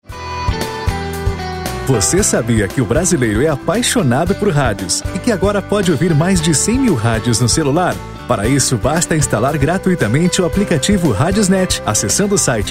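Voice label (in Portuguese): Voce sabia brasileiro apaixonado por radio, masculino; RadiosNet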